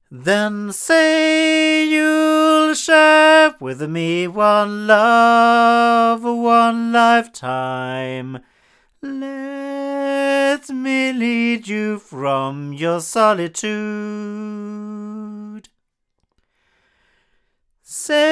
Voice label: Bluebird male